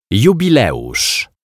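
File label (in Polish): "jubileusz", Polish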